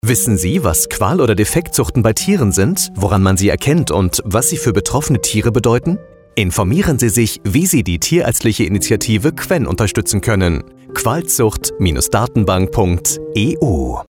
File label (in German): Funkspot